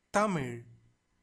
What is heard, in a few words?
"thamizh", Tamil; vowels nasal